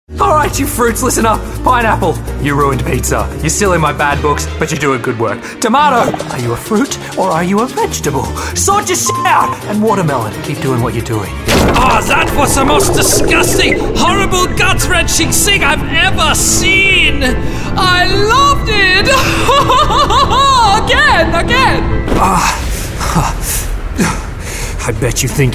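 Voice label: animation, voiceovers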